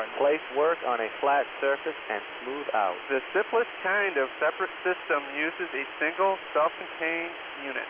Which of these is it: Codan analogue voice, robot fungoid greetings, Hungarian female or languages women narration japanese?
Codan analogue voice